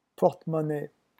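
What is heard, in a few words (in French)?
"porte-monnaie", French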